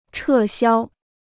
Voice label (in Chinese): "撤销", Chinese